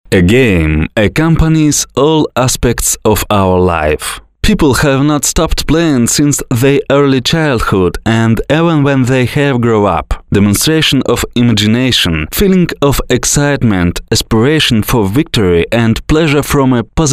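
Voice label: English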